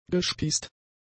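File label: "gespießt", German; adjectives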